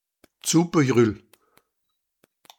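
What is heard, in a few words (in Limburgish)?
"Tsoeppejruul", kerkraads dialect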